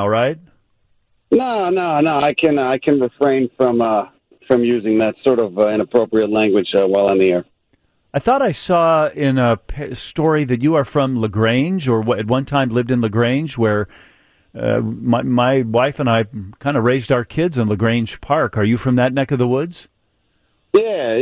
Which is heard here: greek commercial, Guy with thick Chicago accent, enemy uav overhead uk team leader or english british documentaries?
Guy with thick Chicago accent